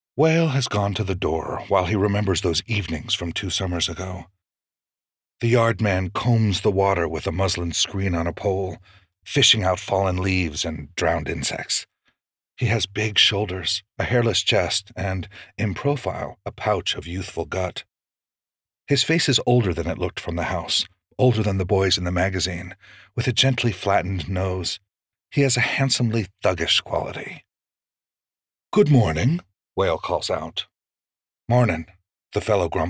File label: Nonfiction, audiobooks; Gods